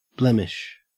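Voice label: "blemish", English, Australian accent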